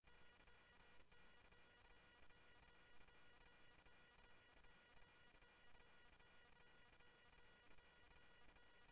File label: Police, scanner